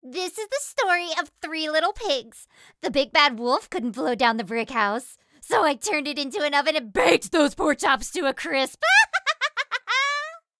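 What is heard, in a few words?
Character Crazy High Pitch with Gravel, Female; American English voice-over artist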